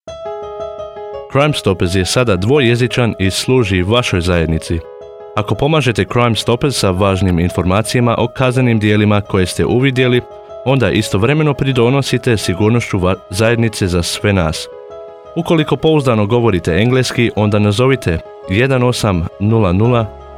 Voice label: Croatian; Hrvatski; Crime Stoppers

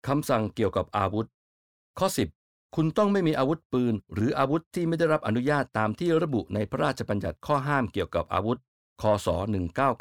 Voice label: thai; orders about weapons

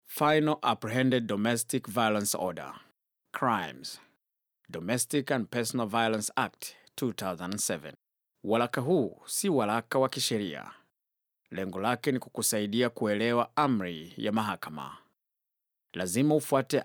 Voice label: swahili; introduction

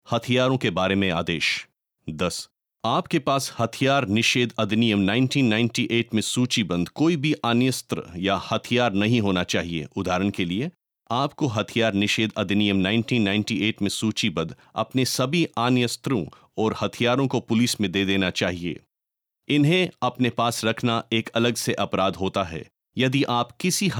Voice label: hindi; Orders About Weapons; apprehended domestic violence orders

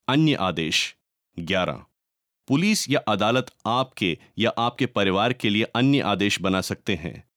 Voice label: other orders hindi

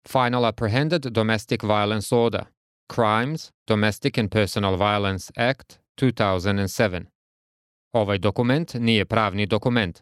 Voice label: serbian; apprehended domestic violence orders accessible guides

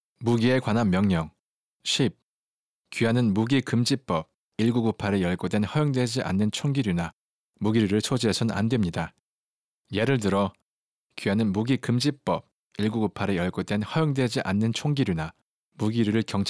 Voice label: korean; orders about weapons

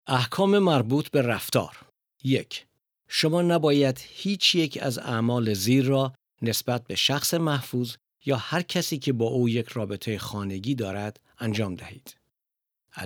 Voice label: farsi; orders about behaviour

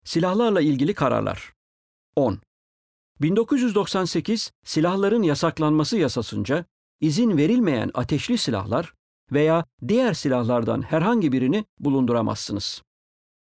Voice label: turkish; orders about weapons

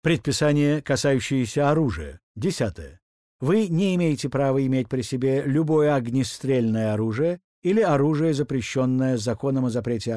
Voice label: russian; orders about weapons

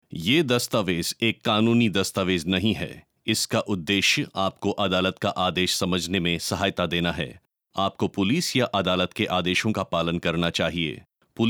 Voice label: hindi; introduction

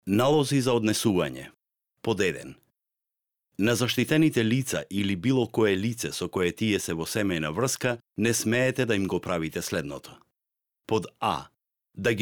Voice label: orders about behaviour macedonian

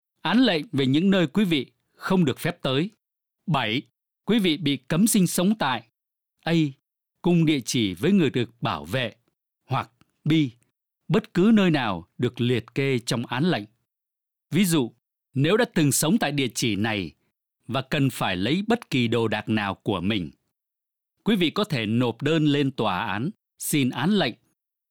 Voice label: orders about where you cannot go vietnamese

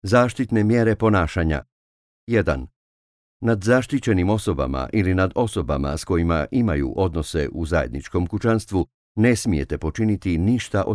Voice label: orders about behaviour croatian

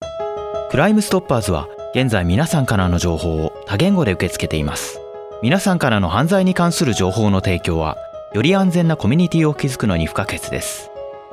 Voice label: Japanese; Nihongo; Crime Stoppers

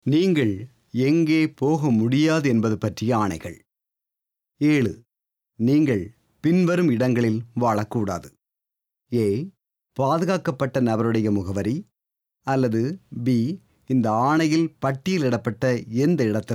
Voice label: tamil; orders about where you cannot go

